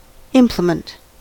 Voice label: "implement", us